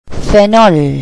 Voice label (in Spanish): "phenol", Spanish